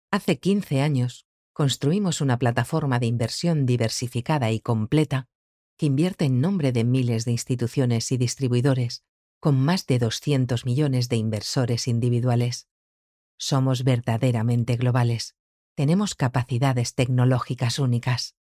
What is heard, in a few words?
locutora spanish voiceover